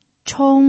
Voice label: "chong1", pinyin

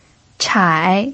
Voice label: "chai3", pinyin

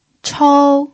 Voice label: "chou1", pinyin

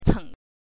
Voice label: "ceng5", pinyin

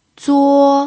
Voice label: "zuo1", pinyin

